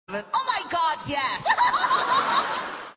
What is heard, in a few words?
drive thru voice oh my god yes